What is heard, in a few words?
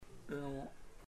teeth, chamacoco; Puerto Diana